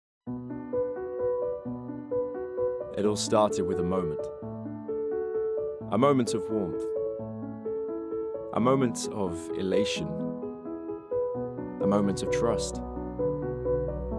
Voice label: COMMERCIAL; Instax

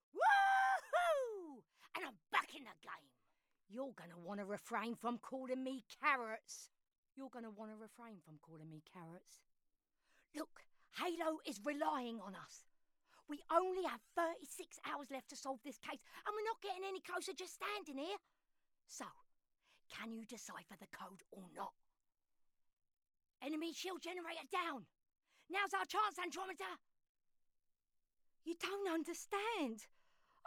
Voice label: Cockney, Animation; Game Demo

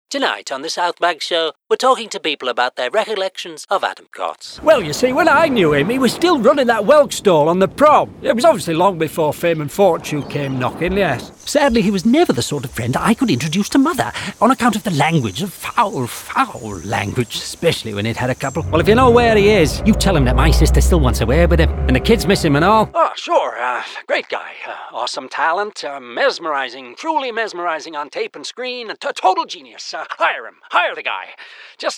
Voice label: Character Reel

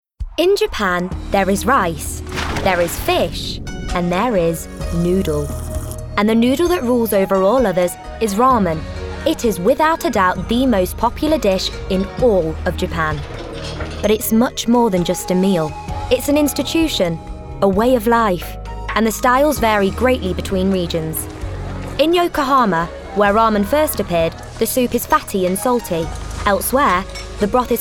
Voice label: Documentary

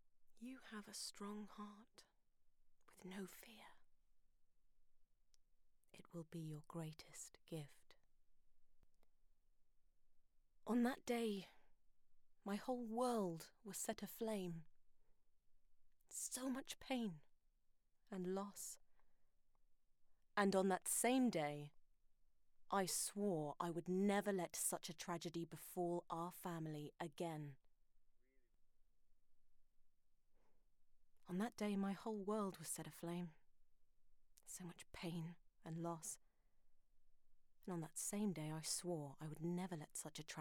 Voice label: RP, Gaming; RP - Game Demo

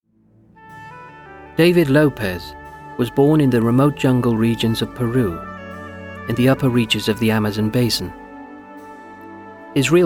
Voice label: Documentary

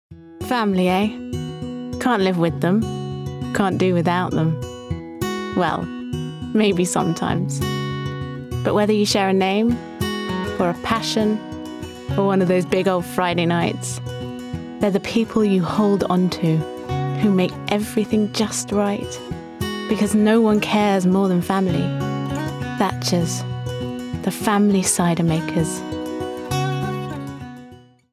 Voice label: Commercial reel

